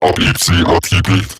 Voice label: Patrol Robot Large, Barks, Battle